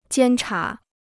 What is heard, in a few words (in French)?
"监察", chinois